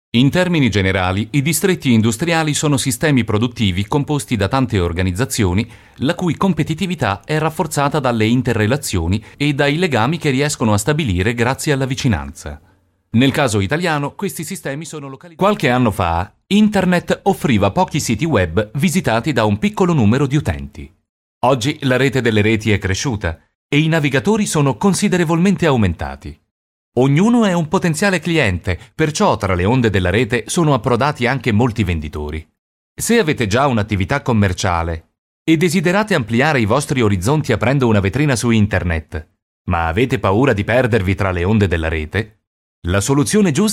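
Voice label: narr, male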